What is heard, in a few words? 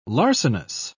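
"larcenous", British English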